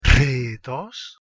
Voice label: "rhetos" Ancient Greek